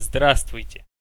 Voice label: "Zdravstvujte", Russian